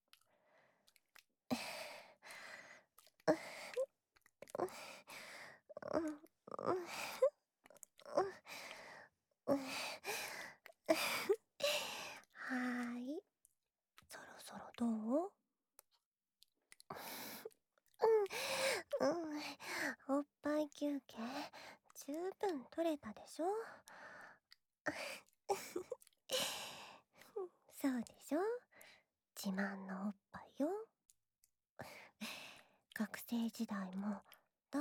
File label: LOVE Whisper